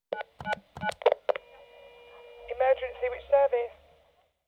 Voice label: Telephone Dialed, 'Emergency, Whi; BBC Sound Effects Library

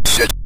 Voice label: "set", synth voice; Kenney's Sound Pack; Synth Voice 1

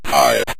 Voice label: "fight", Synth Voice; Kenney's Sound Pack

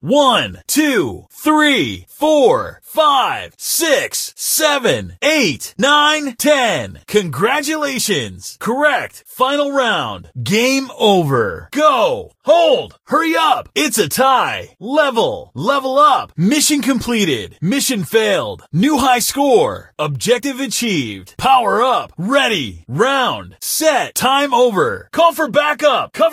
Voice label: Voiceover Male; Kenney's Sound Pack

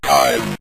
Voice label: "time", Synth Voice; Kenney's Sound Pack

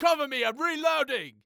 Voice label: Cover Me I'm Reloading, British Soldier Voices; 344 Audio

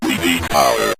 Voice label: we Need Power, Synth Voice; Kenney's Sound Pack

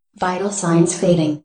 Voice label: "vital signs fading", Narrator Voice, Female AI; Narrator Voice Pack 1

